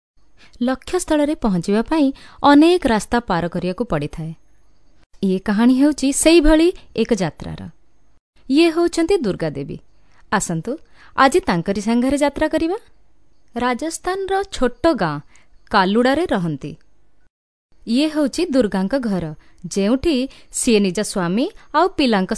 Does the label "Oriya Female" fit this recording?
Yes